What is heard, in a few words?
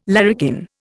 "larrikin", Indian